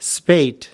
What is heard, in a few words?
"spate", us